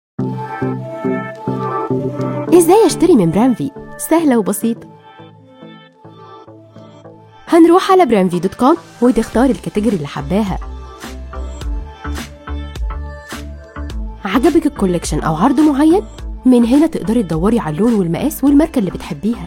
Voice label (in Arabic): اونلاين شوبينج Voice Over